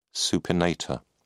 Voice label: "supinator", en uk

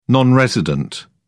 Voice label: "non resident", en uk

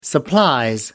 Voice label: "supplies", en uk

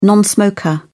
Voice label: "non smoker", en uk

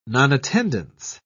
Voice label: "nonattendance", en uk

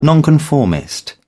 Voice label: "nonconformist", en uk

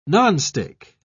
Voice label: "nonstick", en uk

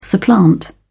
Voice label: "supplant", en uk

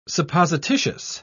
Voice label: "supposititious", en uk

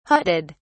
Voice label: hutted, uk

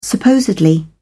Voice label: "supposedly", en uk

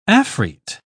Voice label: "afrit", uk

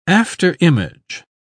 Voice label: "afterimage", uk

